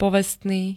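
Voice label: "povestný", Slovak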